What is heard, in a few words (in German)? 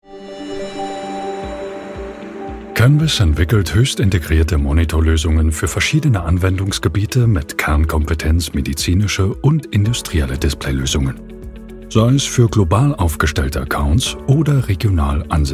Hoerprobe Imagefilm, Sprecher; Canvys